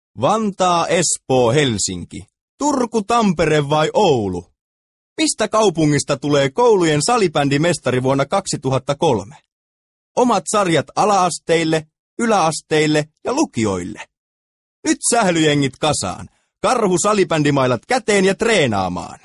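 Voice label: Finnish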